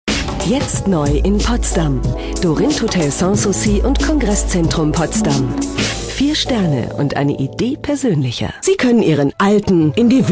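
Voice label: german female voiceover commercial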